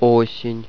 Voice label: "autumn", russian